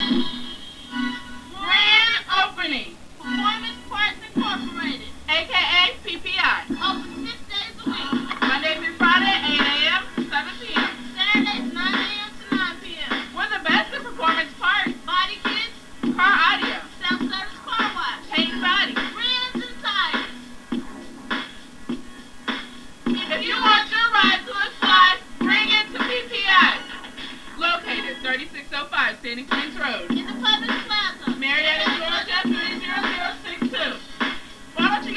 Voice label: commercial